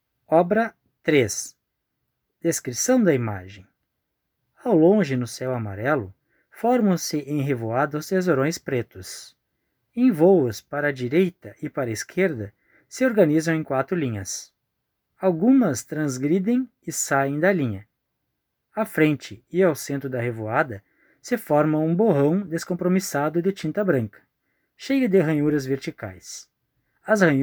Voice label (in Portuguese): audiodescricao; Galeria virtual